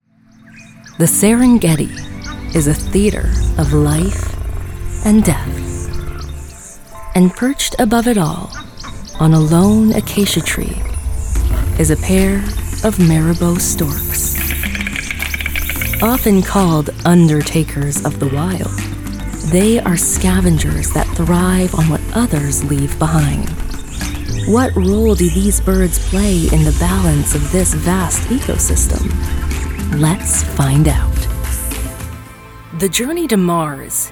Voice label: Tv Narration